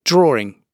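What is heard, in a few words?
"drawing", British English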